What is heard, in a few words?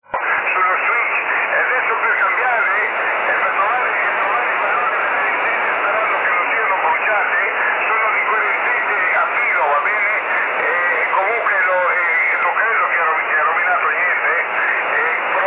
Part of an Amateur Radio transmission from an Unknown place in Unknown language